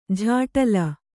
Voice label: "ಝಾಟಲ", Kannada